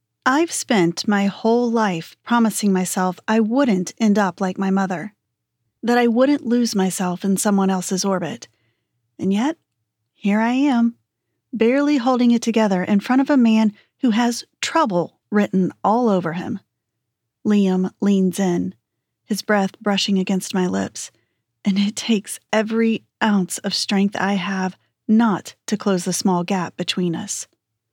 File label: Romance, F M